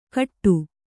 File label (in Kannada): "ಖಟ್ಟು", Kannada